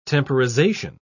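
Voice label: "temporization", British English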